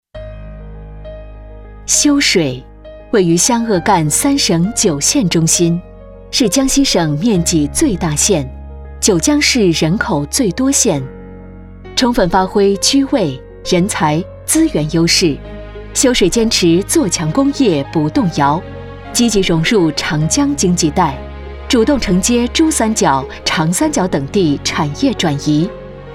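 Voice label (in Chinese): 宣传片大气工业; 修水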